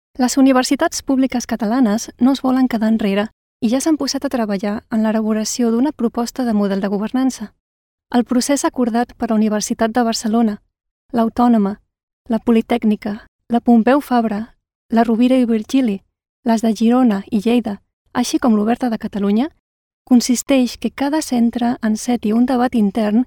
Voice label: Nachvertonung Film Sprecherin Katalanisch

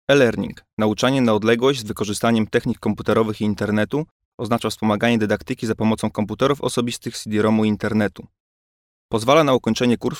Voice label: Nachvertonung Film Sprecher Polnisch

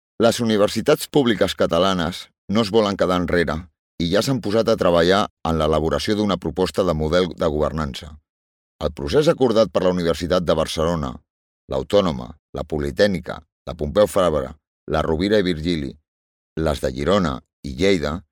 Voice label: Nachvertonung Film Sprecher Katalanisch

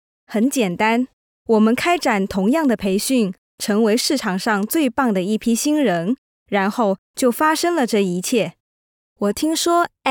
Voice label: Nachvertonung Film Sprecherin Chinesisch

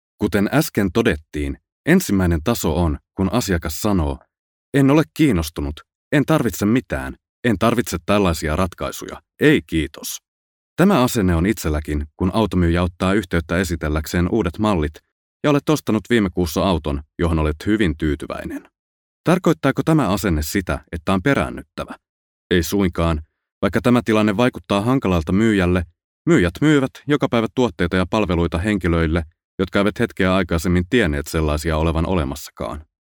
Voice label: Nachvertonung Film Sprecher Finnisch

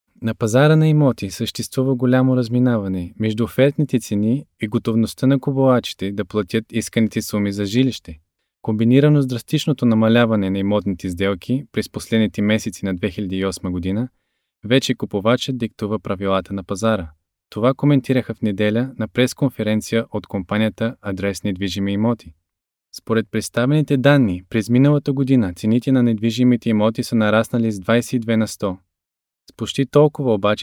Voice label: Nachvertonung Film Sprecher Bulgarisch

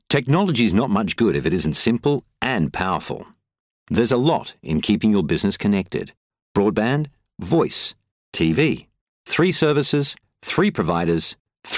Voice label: Nachvertonung Film Sprecher Englisch Australien

